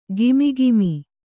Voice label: "ಘಮಘಮ", Kannada